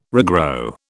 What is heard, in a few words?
"regrow", British